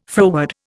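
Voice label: "froward", Indian English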